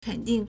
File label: "ken3ding4", Chinese